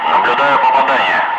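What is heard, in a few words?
Russian speech, Ka-50 Wingman; target hit; DCS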